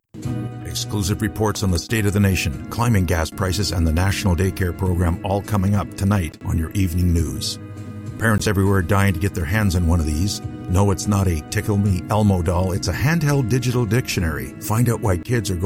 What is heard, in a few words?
RADIO SPOTS